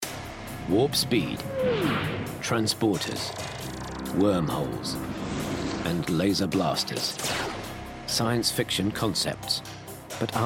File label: english british documentaries